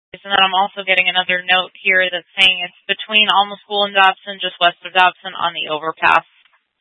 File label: Fire Departments Mesa Simulcast; TOPAZ